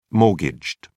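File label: "mortgaged", British English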